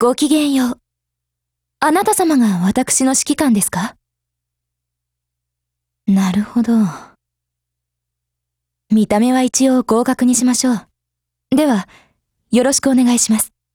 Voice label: GAIN JP; DTASRS

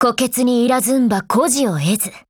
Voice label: GO ATTACK, JP; Px4 Storm

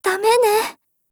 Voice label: LOW MOOD JP

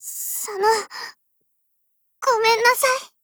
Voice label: RETREAT JP

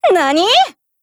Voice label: MOOD JP

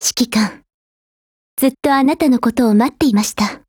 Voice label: HELLO, JP

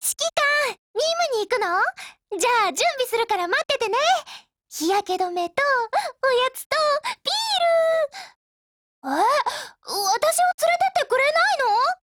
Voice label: DIALOGUE, JP

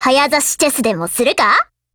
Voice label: LOADING, JP

